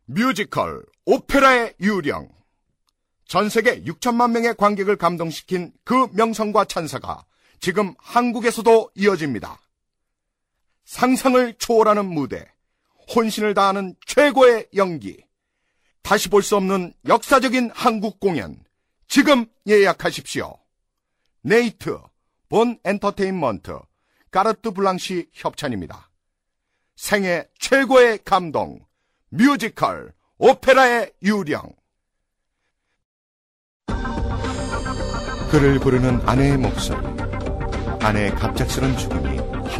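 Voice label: Korean, male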